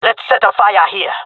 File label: request fire, male; player vo; Counter Strike Model Pack; CS:GO